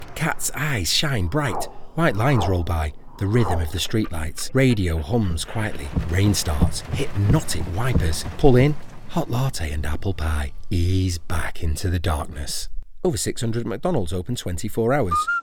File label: Commercials